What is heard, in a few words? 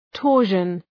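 "torsion", English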